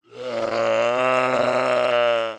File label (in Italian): Verso Zombie Senza Pelle